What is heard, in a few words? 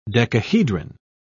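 "decahedron", English, British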